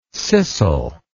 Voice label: "scissel", English